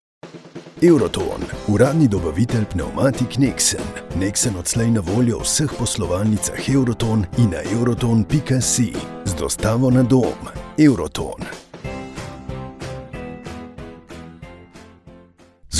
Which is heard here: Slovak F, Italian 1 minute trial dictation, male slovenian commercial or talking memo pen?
male slovenian commercial